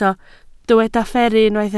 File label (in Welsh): "fferru", Welsh